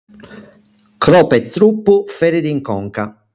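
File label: "Corpu", Sardinian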